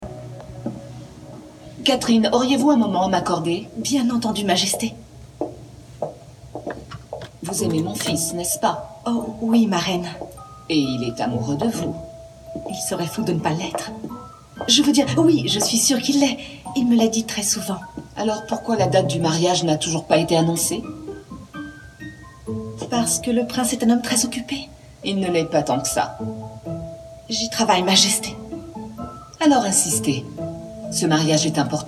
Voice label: Doublage série Rôle Princesse

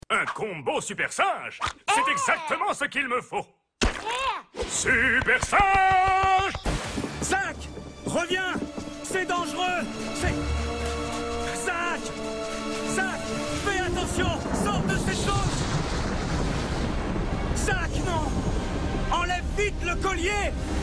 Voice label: medley dessin animé

